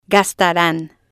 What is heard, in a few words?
"gastaran", spanish